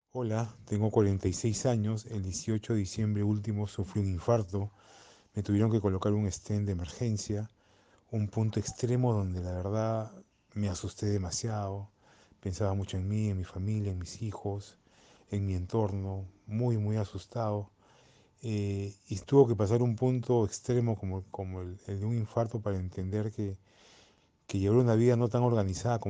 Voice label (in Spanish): Testimonio; aspirina; escucha tu corazon